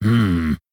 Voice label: Male Dwarf Thinking